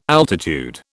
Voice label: "altitude", British